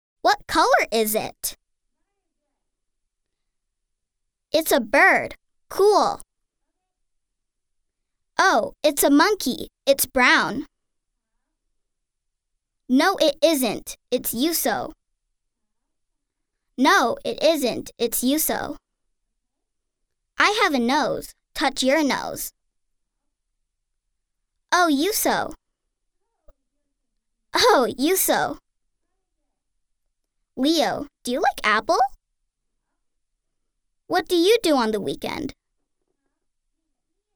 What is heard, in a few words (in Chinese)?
外语 美式英语, 外籍女英